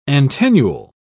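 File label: "antennule", British English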